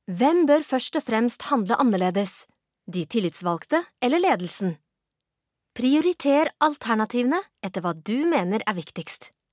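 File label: Norwegian, female, eLearning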